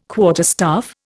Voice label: "quarterstaff", Indian